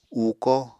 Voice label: "uuko", Apatani

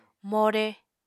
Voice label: "more", Apatani

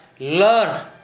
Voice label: vomit to, Gta

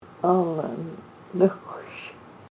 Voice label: "poor you", Tillamook

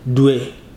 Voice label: "do unwittingly", Ibibio

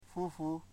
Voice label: "twentyfour", Foe

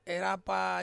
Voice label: "rapa", Ansus; to burn

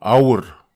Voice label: "aur", netwar

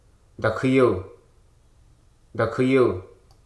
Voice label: "income", Hruso

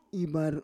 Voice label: "ivar", Roon

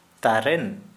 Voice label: Santali arm

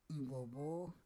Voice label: "ivovo", Roon

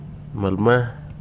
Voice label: "path", Wahgi; lae unitech

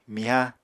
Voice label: "miha", Apatani

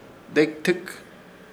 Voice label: "Dekitɨk", Ishir Chamacoco; cualquier parte

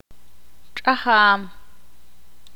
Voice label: ixil; herencia

